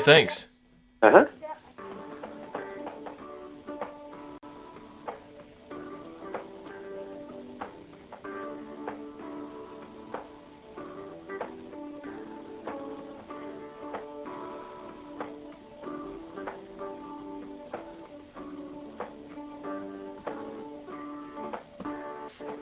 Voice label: On Hold; Carter Lumber